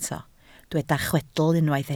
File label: "chwedl", Welsh